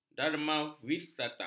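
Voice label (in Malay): "darmawisata", Malay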